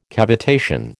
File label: "cavitation", American